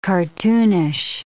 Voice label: "cartoonish", English